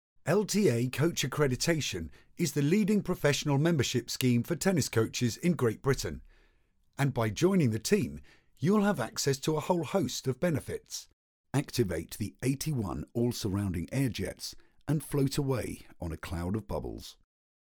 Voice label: Explainer

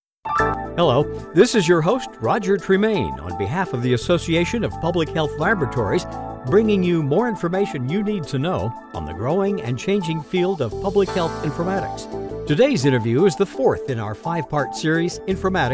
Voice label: Podcast

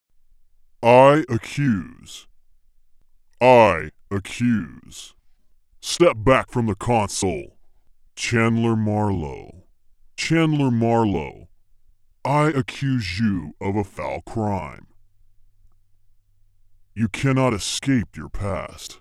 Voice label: Accusation Distort; The Butler Did It

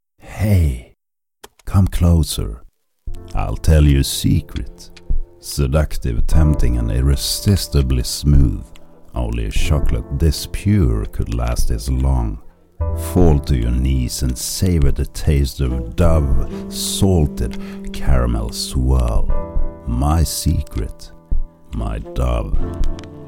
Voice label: Commercial Chocolate English

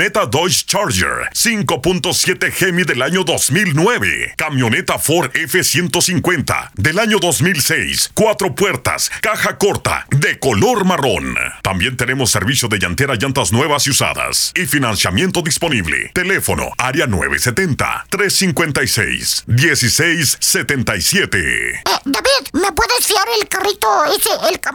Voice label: Auto Sales New Spot

